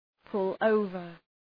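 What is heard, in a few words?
"pull over", English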